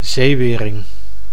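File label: "zeewering", Dutch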